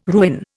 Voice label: "ruin", Indian